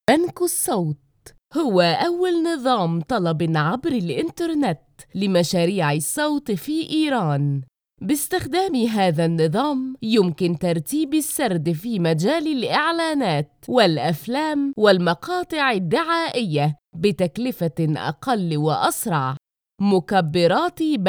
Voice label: Arabic